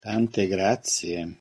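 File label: pronunciation "tante grazie", Italian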